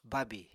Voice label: "bábì", Apatani